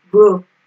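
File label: "grow", Nigerian Pidgin, female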